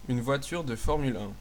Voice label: f1 car desc, fr; vehicles; race; tuxpaint-stamps

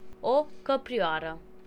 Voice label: doe desc ro; mammals; deer; tuxpaint-stamps

